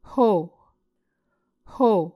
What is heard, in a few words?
"hvo", Muscogee